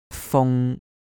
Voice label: "fung1", cantonese